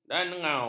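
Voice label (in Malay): "dangau", Malay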